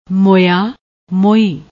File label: "moyaa", Punjabi